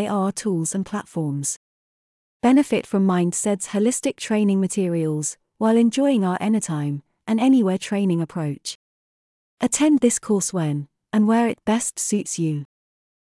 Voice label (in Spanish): adulto learning